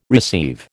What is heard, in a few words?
"receive", American